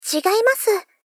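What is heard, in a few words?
Polite, Deny, "Chigai masu", Japanese female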